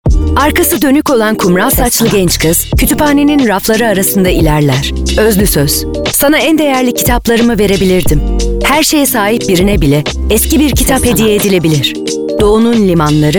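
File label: Turkish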